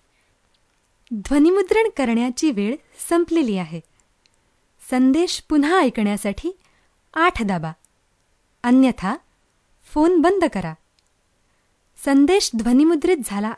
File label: Marathi Female